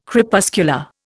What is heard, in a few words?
"Crepuscular", Indian